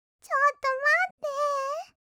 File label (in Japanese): ちょっとまって, kawaii voice; 1200 kawaii voice!!; 色々なワンショット